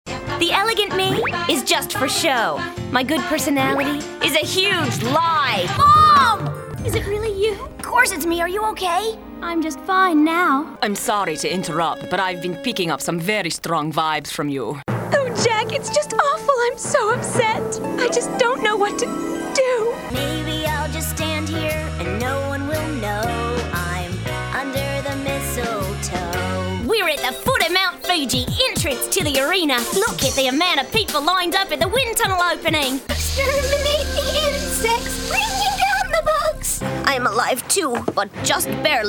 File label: Character